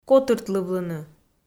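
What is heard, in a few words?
"котыртлывлыны", Komi, female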